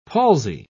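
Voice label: "palsy", British English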